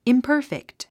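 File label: "imperfect", English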